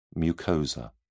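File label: "mucosa", British English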